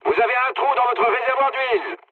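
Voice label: damaged oil, mood low, French; War Thunder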